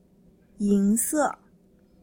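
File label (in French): "argente", French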